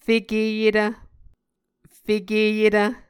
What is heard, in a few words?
"fekeyetv", muscogee